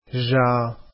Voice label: arabic alphabet jaa